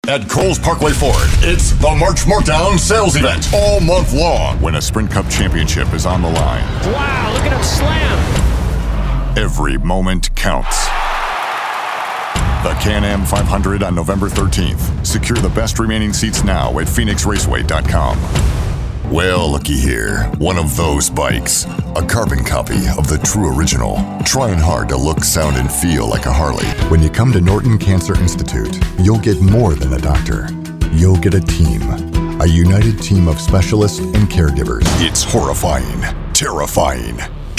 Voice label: Commercial Male, Commercial Voiceover, TV and Radio